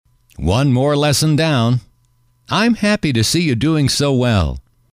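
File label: one more lesson down I'm happy to see you, male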